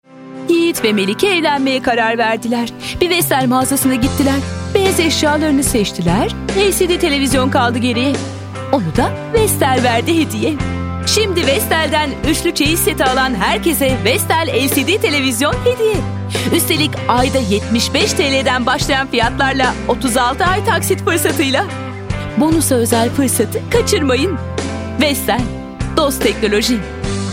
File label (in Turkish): enerjik esprili